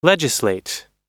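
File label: "legislate", English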